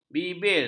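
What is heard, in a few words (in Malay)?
"bibir", Malay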